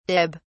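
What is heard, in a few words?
"dib", British English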